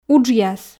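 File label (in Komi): "уджъяс", female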